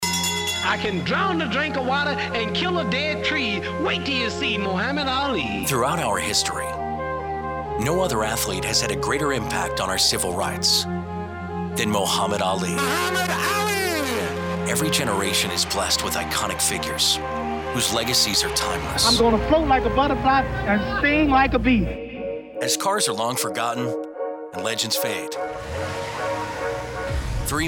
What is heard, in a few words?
Narration